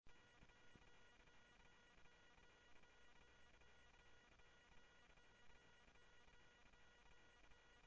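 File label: Sheriff Lake Patrol, scanner audio; MCSO Lakes 1; ThompsonPeakScot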